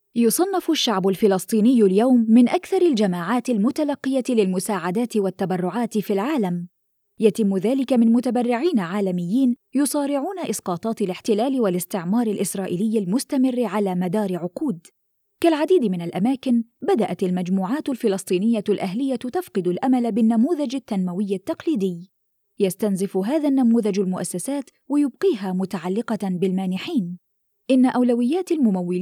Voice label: arabic documentaries